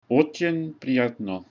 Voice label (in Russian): meet, ru; Очень приятно